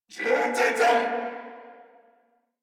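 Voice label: Throw Grenade Corpus Venus Male, en; Warframe; Battle Barks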